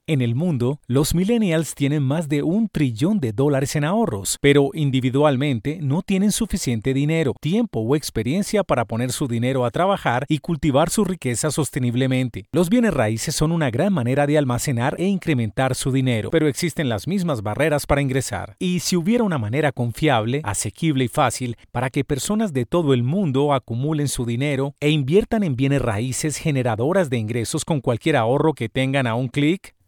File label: SPANISH